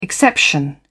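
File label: "exception", British English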